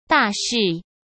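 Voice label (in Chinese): "大事", Mandarin Chinese; hsk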